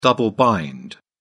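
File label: "double bind", British English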